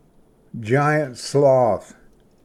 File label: "ᏙᏯ ᎡᏆ", Pronunciation; giant-sloth